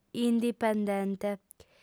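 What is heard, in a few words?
"indipendente", Sardinian, female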